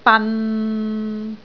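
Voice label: "ban6", Cantonese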